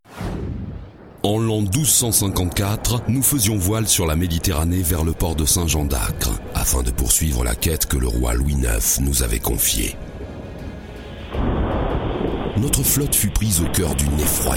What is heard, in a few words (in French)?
voix diverses, voix grave